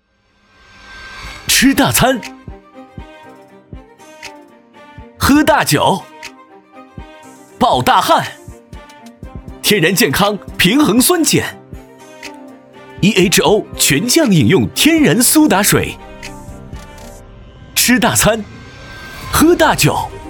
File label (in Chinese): 男 广告 活力激情 苏打水; eho苏打水